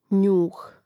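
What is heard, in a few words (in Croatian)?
"njuh", Hrvatski; mreznik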